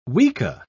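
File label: "weka", British English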